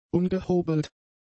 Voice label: "ungehobelt", German; adjectives

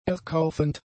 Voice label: "erkaufend", German; adjectives

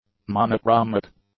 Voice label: "monochromic", English; adjectives

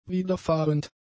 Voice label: "widerfahrend", German; adjectives

